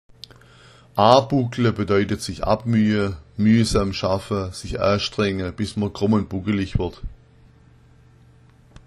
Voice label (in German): abuckla, schwaebisch